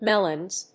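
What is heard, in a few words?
"melanges", English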